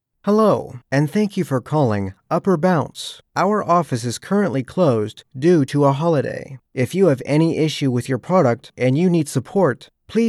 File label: IVR